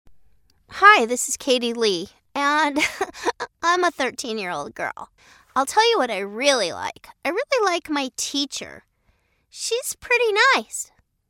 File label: girl voiceover english american, Child voice